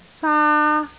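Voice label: "saa1", Cantonese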